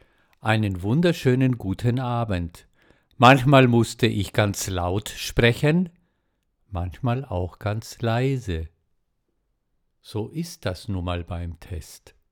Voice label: Rode NT2-A